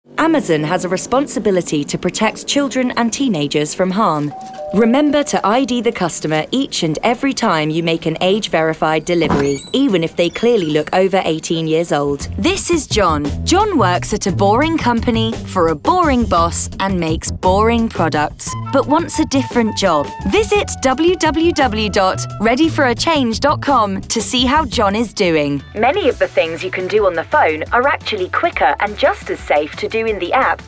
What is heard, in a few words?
Corporate